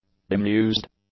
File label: "bemused", English; adjectives